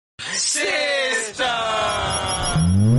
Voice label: attitude dialogue; elvish yadav

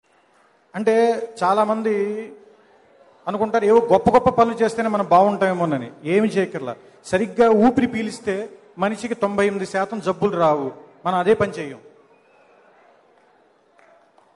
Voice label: funny punch dialogues telugu; Sapthagiri

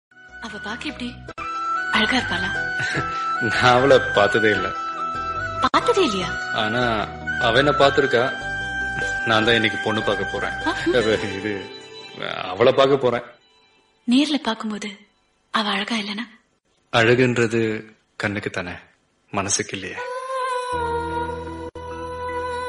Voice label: telugu dialogue; vijay thalapathy

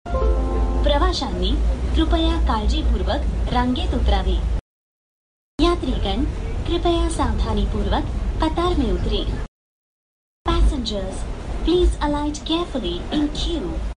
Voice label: local train announcement

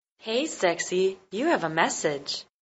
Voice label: hey sexy girl voice message tone

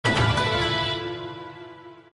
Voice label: "arigato", notification

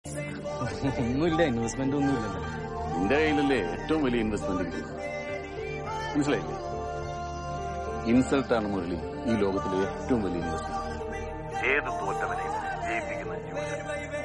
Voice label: motivational dialogue

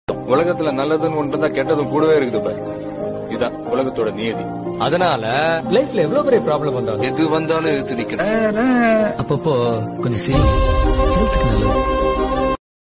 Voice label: motivational, dialogue, tamil; vijay sethupathi

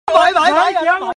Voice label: are bhai bhai bhai funny notification

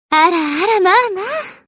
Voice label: "ara ara" female japanese expression

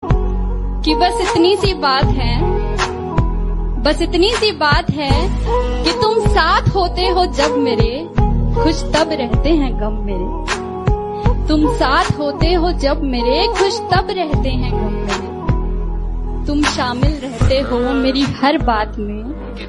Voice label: wife love shayari

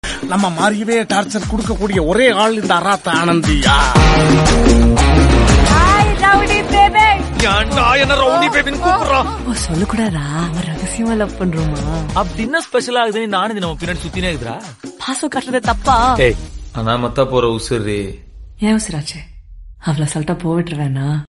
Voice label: Tamil dialogue; maari movie

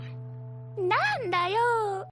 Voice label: nandayo startling outburst

